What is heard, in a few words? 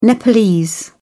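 "nepalese", British English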